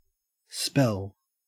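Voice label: "spell", Australian English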